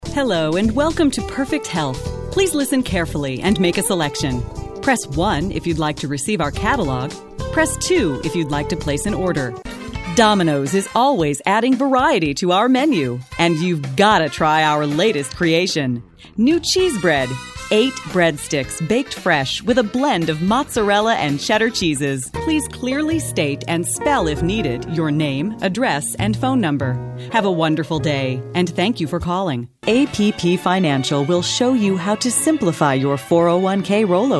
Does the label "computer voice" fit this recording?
No